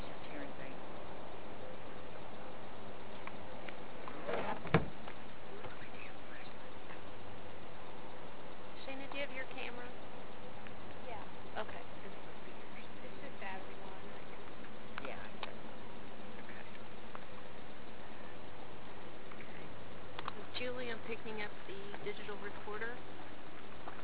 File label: whispers